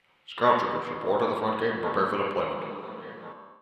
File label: veteran pa; gmod; star wars battlefront 2 galaxy map; rp fortmithraw